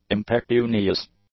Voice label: "impecunious", English; adjectives

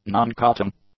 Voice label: "non-cutting", english; adjectives

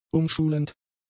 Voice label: "umschulend", German; adjectives

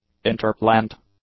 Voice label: "interplant", english; adjectives

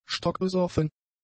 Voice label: "stockbesoffen", German; adjectives

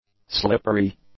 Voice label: "slippery", English; adjectives

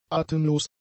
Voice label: "atemlos", German; adjectives

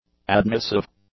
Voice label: "admissive", English; adjectives

